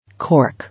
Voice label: "Cork", English